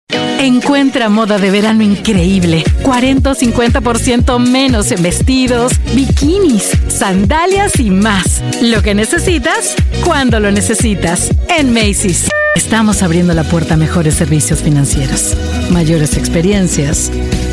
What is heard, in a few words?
spanish voice over female commercial